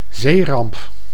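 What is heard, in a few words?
"zeeramp", Dutch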